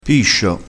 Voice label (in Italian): "piscio", vocabolario dialettale